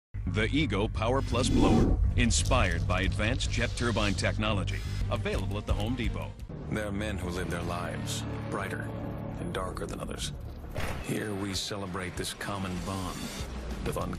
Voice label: commercial men